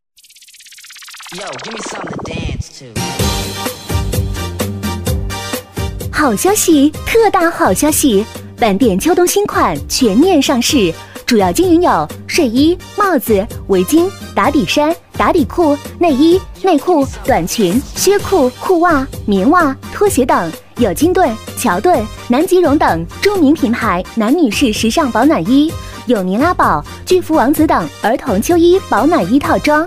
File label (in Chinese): 女1 促销 本店秋冬新款全面上市, 国语女声配音, 磁性